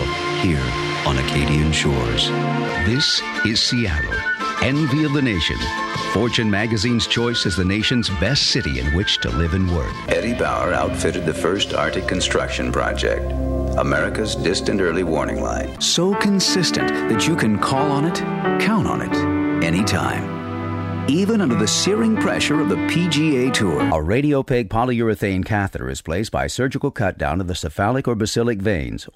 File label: Narr